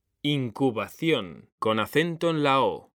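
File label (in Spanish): "incubación", Spanish, male; mekanta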